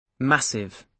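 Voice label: "massive", British English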